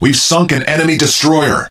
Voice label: Speech Destroy Enemy Destroyer, EN; World Of Warships

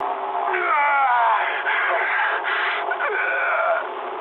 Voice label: damaged pilot, speech ru

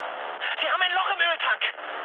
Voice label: damaged oil, mood high, speech ge; War Thunder

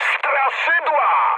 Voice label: enemy detected mood high, speech pol; War Thunder

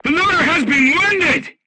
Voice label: voice message charger wounded, vietnamese

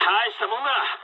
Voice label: jp trick, speech jp

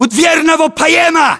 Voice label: landmark near ext doorway, battlechatter ru; localized english

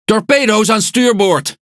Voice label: NL Speech Alarms Torpedo Right; World Of Warships

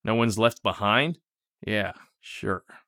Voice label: mutter; Escape from Tarkov

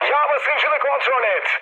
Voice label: damaged oil, mood high, speech tur; War Thunder

